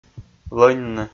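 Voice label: "лӧньны", Komi, male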